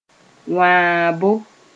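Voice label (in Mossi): "wãabo", Mooré; cutting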